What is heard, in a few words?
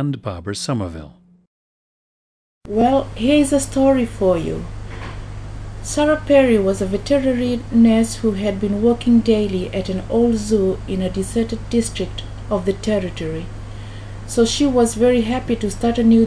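fiji, International Dialects of English Archive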